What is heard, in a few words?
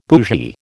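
"bougie", American English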